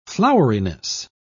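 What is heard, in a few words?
"floweriness", British English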